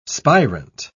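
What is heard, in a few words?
"spirant", British English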